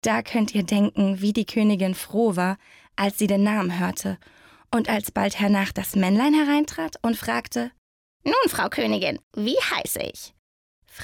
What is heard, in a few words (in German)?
Hörbuch; Rumpelstilzchen